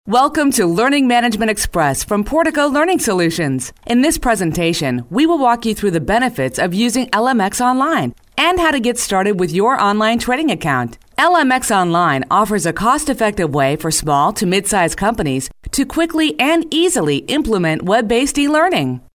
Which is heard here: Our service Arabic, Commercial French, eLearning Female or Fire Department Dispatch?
eLearning Female